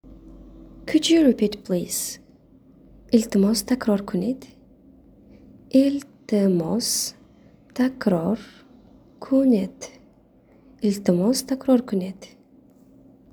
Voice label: Could You Repeat Please Tajik